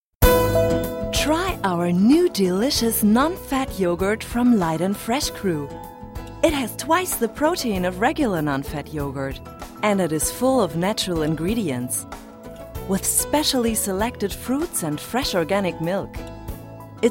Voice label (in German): Werbung Englisch